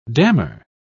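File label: "dammar", British English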